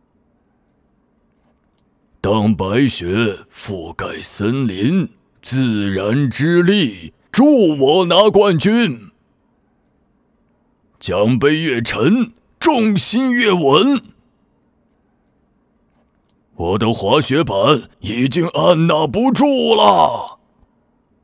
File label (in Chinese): 手游低沉浑厚大叔; 自走棋